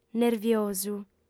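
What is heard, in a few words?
"nerviosu", female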